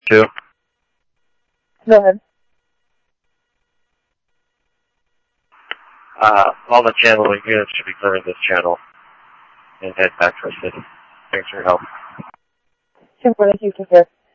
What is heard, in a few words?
Interop Mutual Aid, scanner audio; H-Scottsdale; G6 Interop